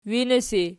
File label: winisi nom, archi